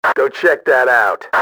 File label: check, radio male; XBOX